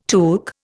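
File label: "tool", Indian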